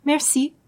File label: "merci", French